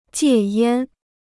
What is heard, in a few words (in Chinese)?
"戒烟", Chinese